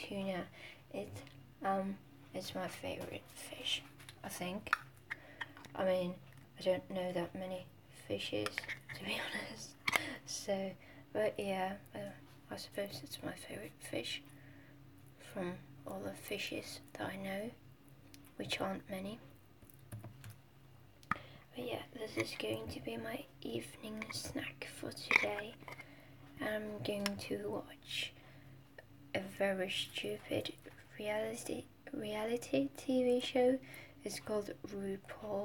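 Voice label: Rambling while making bread, stuttering, silly, female; F4A; ramble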